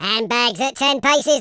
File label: coward, Footy Fan speech